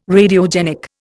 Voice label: "Radiogenic", Indian

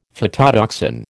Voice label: "phytotoxin", American

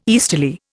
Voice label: "easterly", Indian

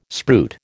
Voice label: "spruit", American

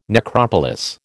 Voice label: "necropolis", American

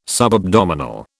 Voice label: "subabdominal", British

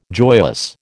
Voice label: "joyous", American

